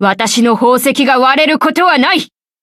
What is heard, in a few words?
levelup, ja